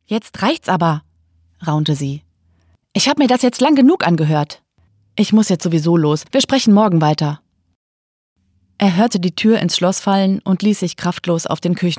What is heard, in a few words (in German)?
Hörbuch, Audiobook, German; Immer ich